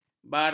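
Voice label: "barak", Malay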